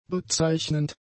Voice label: "bezeichnend", German; adjectives